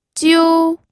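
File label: "jiu1", Mandarin Chinese first tone; pinyin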